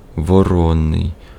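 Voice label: Ukrainian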